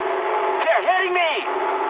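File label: aircraft damaged mood high, speech English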